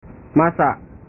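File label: "masa", Indonesian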